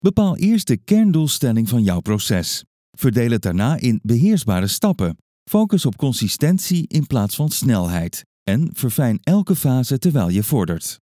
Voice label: dutch documentaries